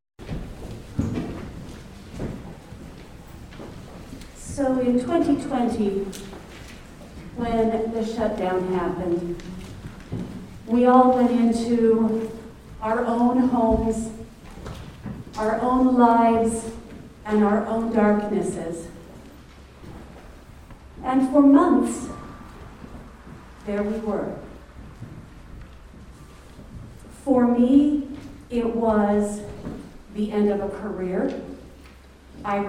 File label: Narration